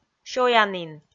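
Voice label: "сёянін", Komi, female; audio dict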